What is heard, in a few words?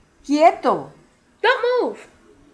"quieto" Spanish